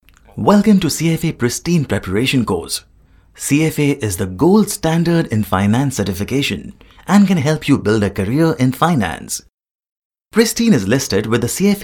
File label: Explainer; CFA